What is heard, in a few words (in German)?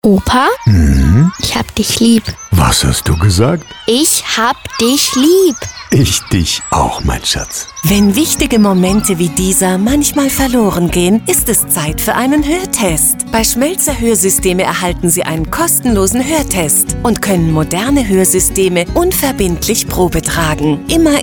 Radiospot; Schmelzer Hoersysteme; Opa